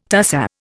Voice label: "tussah", Indian